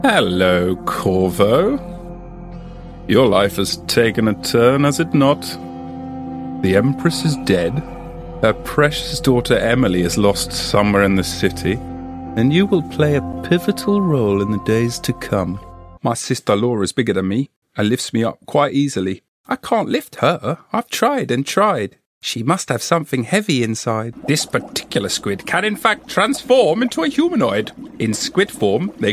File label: English British video games